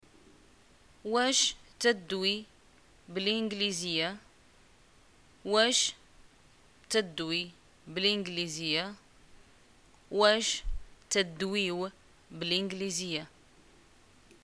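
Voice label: do you speak English, Moroccan arabic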